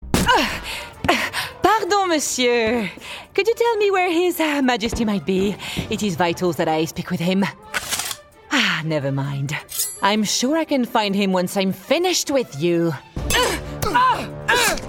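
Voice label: FR Gaming, French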